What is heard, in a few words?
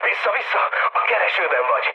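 Hungarian searchlite mood med, speech; War Thunder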